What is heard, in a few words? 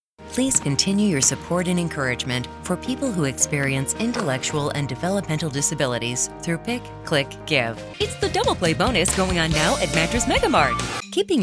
female voice